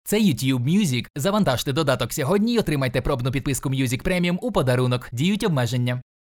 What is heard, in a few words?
ukrainian commercial